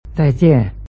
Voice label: "zaijian", Chinese